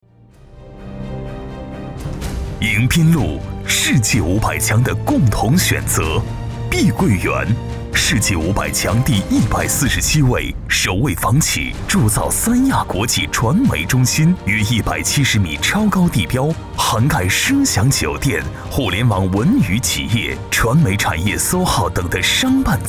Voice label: 广告 招商; 三亚迎宾路招商

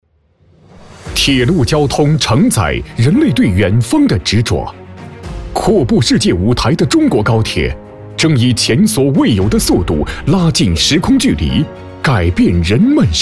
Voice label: 专题武汉高铁

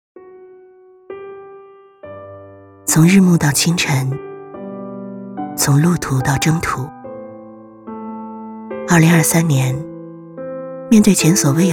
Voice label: 旁白 走心; 旁白走心热爱的每一度月

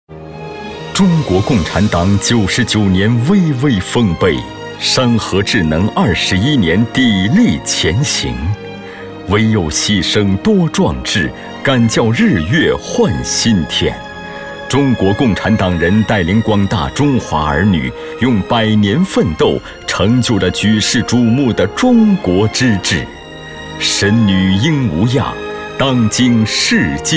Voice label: 专题片; 党旗下的承诺

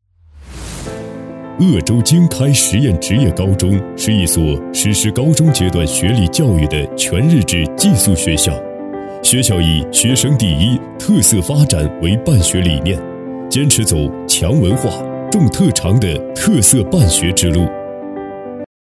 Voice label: 宣传片学校

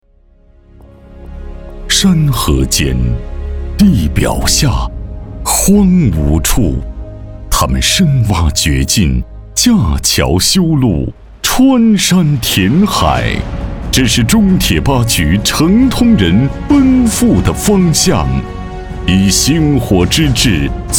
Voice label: 大气豪迈

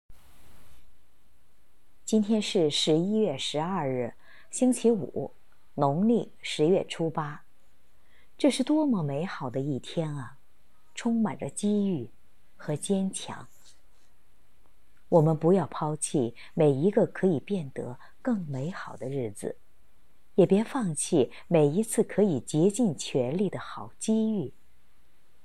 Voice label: 悠然 洒脱

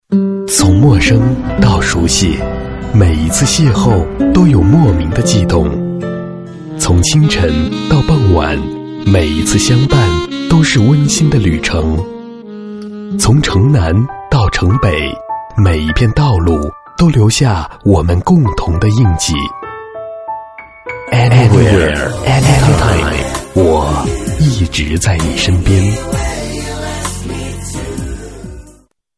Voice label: 温暖 男声

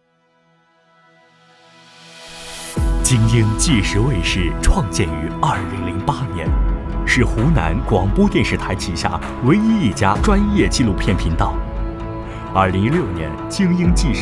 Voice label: 广告; 金鹰纪实卫视

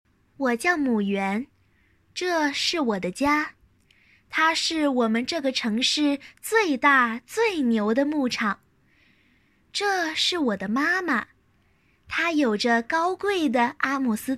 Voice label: 牛奶 广告 试音

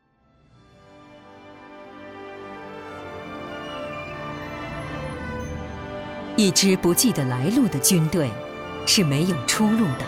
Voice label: 政府党政类大气稳重力量感; 英雄的人民军队

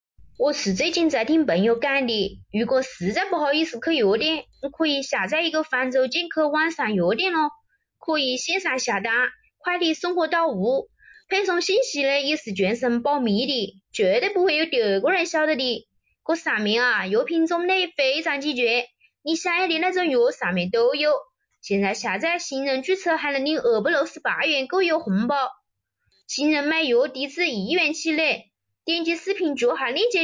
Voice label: 长沙话方言音

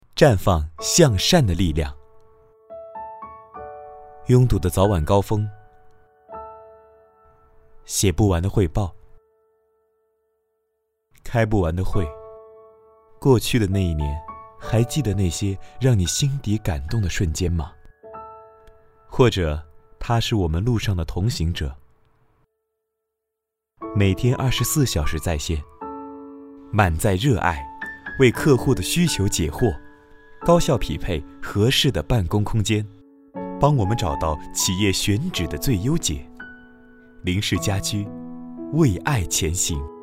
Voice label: 青年走心广告

